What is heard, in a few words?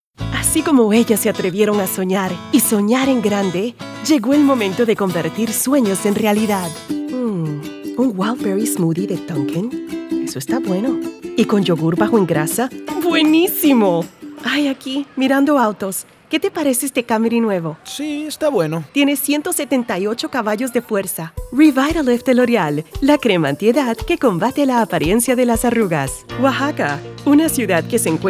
Corporate Narrations